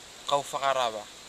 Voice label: "kaufaghawara", futuna aniwa; Housing